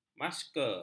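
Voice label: "masker", Malay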